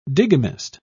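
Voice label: "digamist", en, British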